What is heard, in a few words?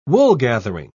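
"woolgathering", British English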